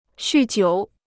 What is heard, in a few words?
"酗酒", Chinese